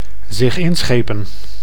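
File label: "zich inschepen", Dutch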